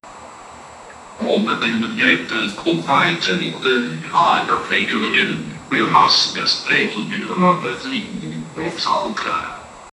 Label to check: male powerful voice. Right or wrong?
Right